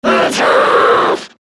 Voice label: Attack Grineer Manic; Battle Barks